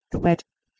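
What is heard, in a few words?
"h1uedh", Proto-Indo-European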